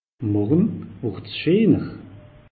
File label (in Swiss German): "morje scheener", alsace; meteo